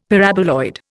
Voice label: "Paraboloid", Indian; Dictionary